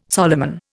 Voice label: "Solomon", Indian